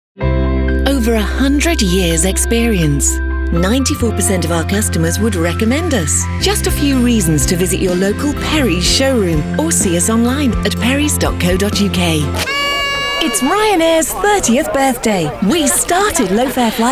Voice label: Commercial Showreel